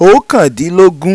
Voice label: "Okandinlogun", Yoruba